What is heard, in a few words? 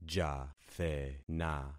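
"jathena", concatenated syllables; Affixing experiment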